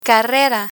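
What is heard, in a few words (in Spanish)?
"carrera", Spanish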